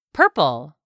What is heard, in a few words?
"purple", english; 3000 words